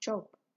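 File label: "chop", Nigerian Pidgin, female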